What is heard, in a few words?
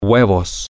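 eggs, spanish, male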